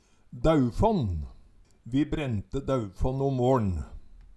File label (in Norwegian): "daufånn", Numedalsmål